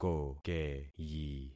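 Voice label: "kokeyi", concatenated syllables; Affixing experiment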